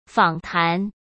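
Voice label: "访谈", Mandarin Chinese; chinese flashcards; hsk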